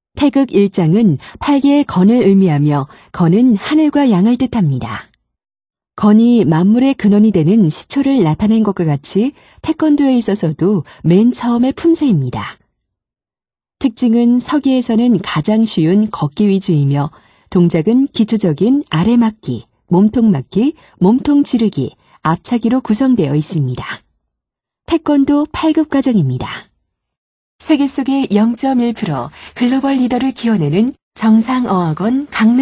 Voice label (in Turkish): Korece Kadin